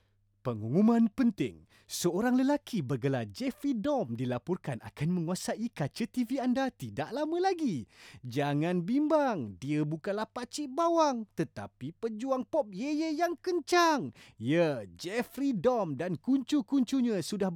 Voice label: comedy

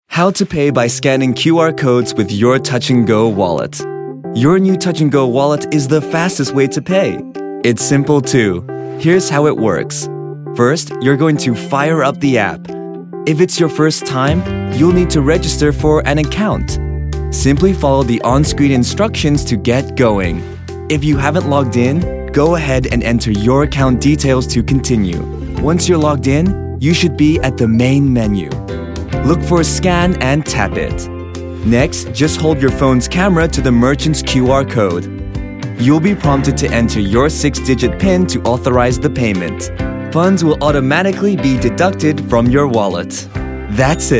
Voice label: Young Corporate; Touch n go wallet

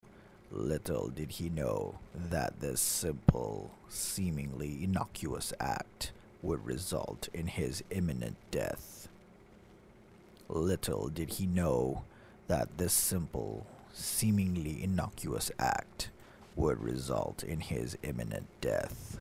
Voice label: Narator

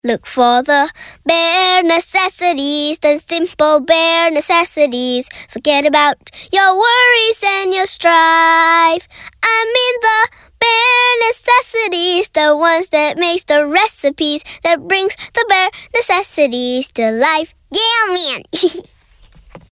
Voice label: ENG

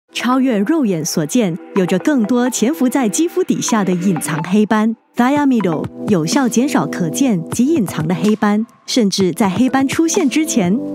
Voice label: Mandarin Announcer, Style: Confident & Energetic; Pizza Hut

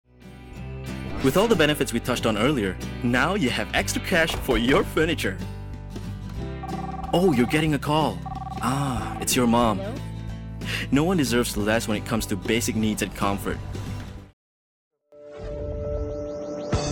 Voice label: English Corporate

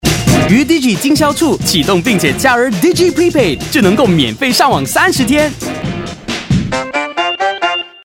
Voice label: MAND

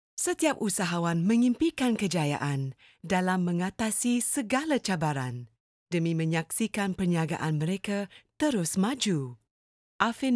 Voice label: BM VO lively; IN Bank

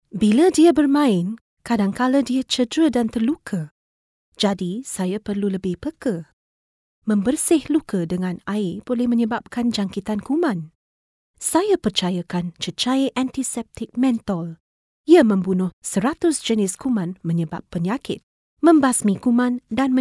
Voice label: Malay VO

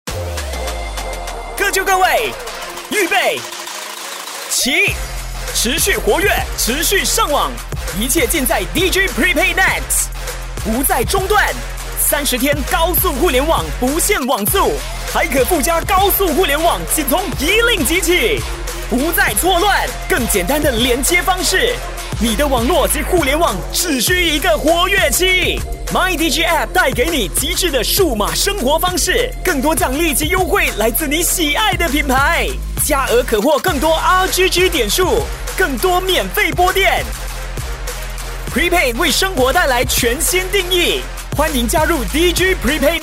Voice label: Mandarin - Explosive, Commander, High Energy

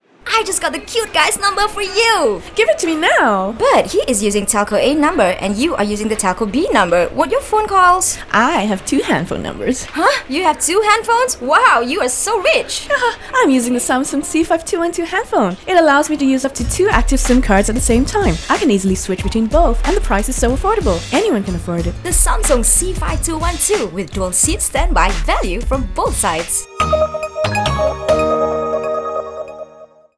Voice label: English; Samsung C5212

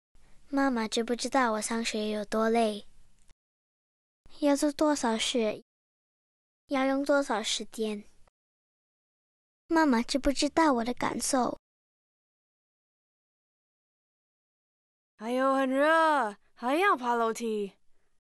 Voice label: Mandarin

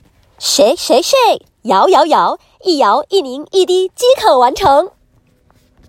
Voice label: Animated Makeup Video TVC

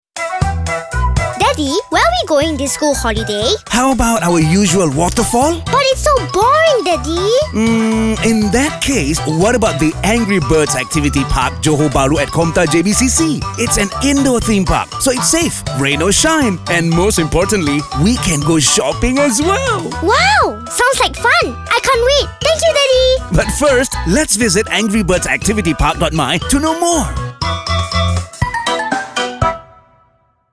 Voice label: ENG; JOHOR BARU; ANGRY BIRDS PARK; ANGRY BIRDS ACTIVITY PARK

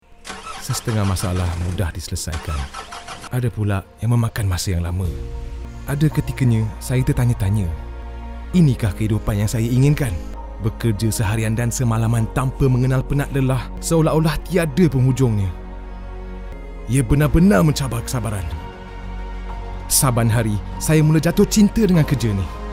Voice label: BM DRAMATIC; CASTROL; HARI MEKANIK

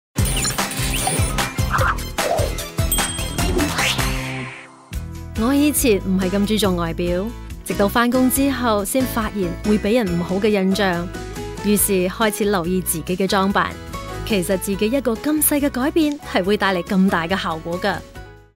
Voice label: Cantonese VO; blunis

